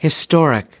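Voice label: "historic", American English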